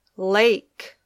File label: "lake", English